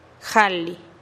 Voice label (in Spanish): "jalih" veinte, teotitlan